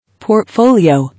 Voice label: "Portfolio", English